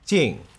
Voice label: "jin", Chinese